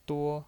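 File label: "duo" Mandarin Chinese, first tone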